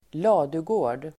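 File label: "ladugård", Swedish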